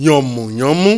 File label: "yanmuyanmu", Yoruba; CONSONANTS; yeti yanmuyanmu ayo